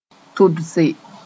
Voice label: "tʋdse", moore; make pregnant

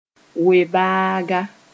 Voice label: "we-baaga", moore

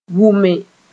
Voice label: "wʋme", moore; to be tired

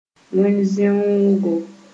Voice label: "wẽn-zẽoogo", Moore

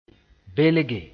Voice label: "belge", moore

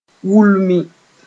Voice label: "wulmi", moore; to heat

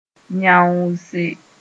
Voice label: "yãoose", moore

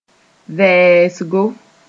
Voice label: "vɛɛsgo", moore

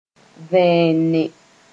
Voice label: "vẽene", moore

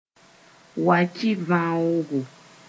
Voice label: "wakɩ-vãoogo", Mooré; banknote

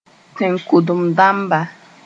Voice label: "tẽn-kʋdem-dãmba", Moore

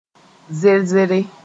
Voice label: "zer-zere", moore

